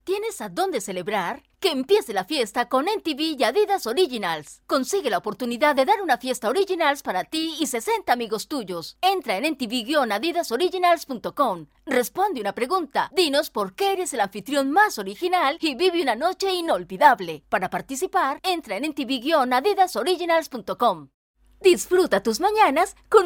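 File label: Latin American Spanish Commercial; GoLocalise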